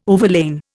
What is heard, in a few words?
"overlain", Indian